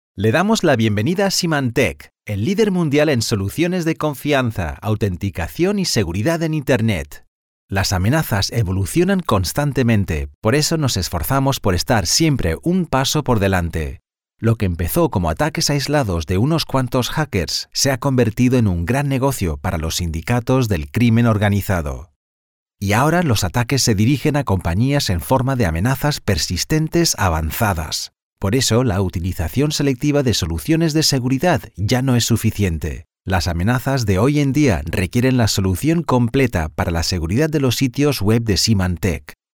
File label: castilian male corporate